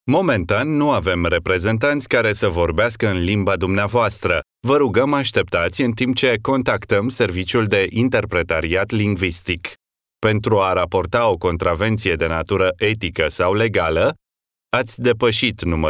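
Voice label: Romanian male IVR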